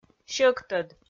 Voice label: "сьӧктӧд", Komi